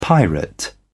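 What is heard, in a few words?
"pirate", British English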